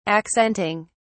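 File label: "accenting", English, British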